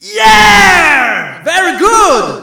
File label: "Final A", announcers techno evaluation; stepmania